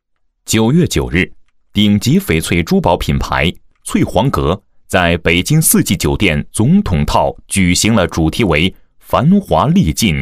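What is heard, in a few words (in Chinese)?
【专题】男-磁性; 珠宝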